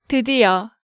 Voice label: "Deudieo", Hangeul sounds; DigitalSoju